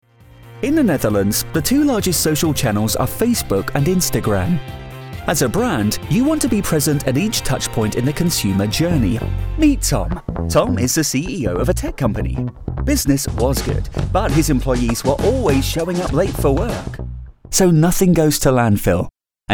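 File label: English, UK, male, corporate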